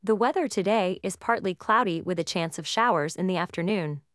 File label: Female; TTS Overview; English (en-US); English-US; IPA; Multi-speaker